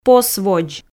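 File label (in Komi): "посводз" Komi, female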